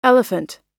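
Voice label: "elephant", English; oxford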